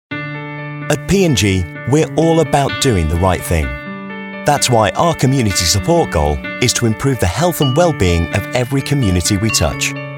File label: EXPLAINER CONVERSATIONAL voiceover